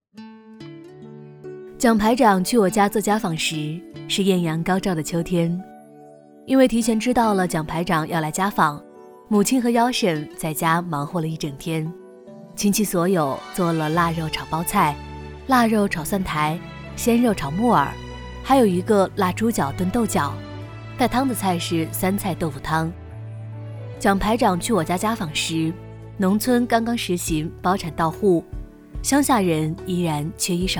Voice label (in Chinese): 女 讲故事 公众号 讲述